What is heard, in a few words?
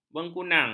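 "bengkunang", Malay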